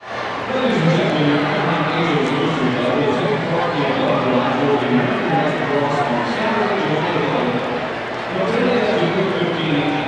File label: Baseball Crowd Announcer; SFX Kit; Crowds